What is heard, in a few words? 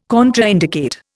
"contraindicate", Indian